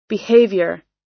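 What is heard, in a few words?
"behaviour", US English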